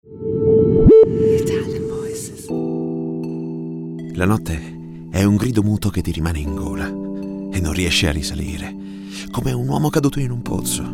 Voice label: ITALIAN VOICES, male voices; Naked Reel